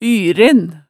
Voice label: "yren", hemsedalsmaalet tale

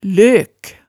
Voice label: "løk", hemsedalsmaalet tale

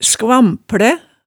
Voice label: "skvamplæ", hemsedalsmaalet

